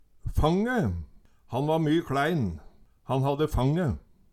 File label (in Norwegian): fange, Numedalsmål; Ordet er ubøyeleg.